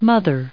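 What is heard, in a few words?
"mother" English, American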